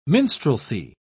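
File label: "minstrelsy", British English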